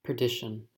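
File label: "perdition" English, US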